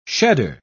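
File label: "shedder", British English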